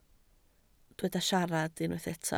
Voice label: "siarad", Welsh

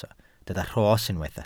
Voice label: "rhos", welsh

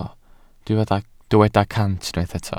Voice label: "cant", Welsh

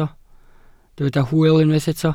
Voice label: "hwyl", Welsh

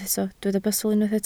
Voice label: "bustl", Welsh

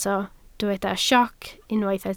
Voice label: "sioc", Welsh

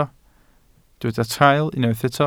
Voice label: "traul", Welsh

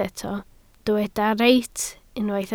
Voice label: "reit", Welsh

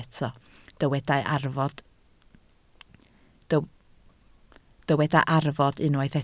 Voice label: "arfod", Welsh

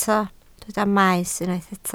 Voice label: "maes", Welsh

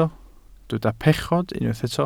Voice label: "pechod", Welsh

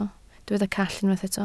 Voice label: "call", Welsh

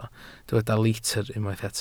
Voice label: "litr", Welsh

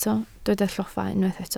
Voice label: "lloffa", Welsh

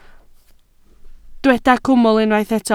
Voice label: "cwmwl", Welsh

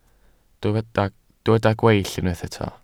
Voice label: "gweill", Welsh

